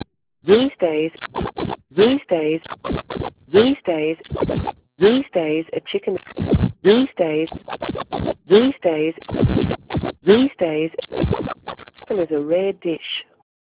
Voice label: female scrub; sweep